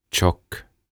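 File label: "Tjock" Swedish, "Lihava" Finnish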